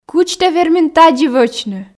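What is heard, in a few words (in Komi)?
кыдз тэ вермин тадзи вӧчны, Komi, female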